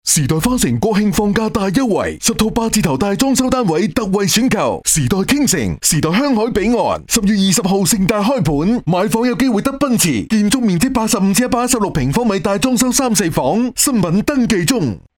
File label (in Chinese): 男粤 广告 促销 促销类; 粤语